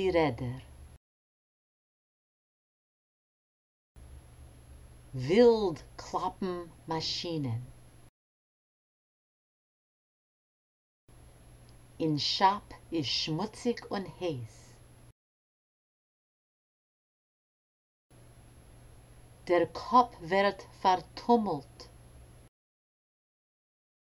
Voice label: Pronunciation Drill; Shnel Loyfn Di Reder